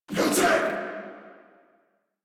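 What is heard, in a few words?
Reload, English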